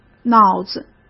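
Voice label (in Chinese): "脑子", Mandarin Chinese; hsk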